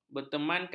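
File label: "bertemankan", Malay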